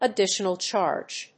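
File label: "additional charge", English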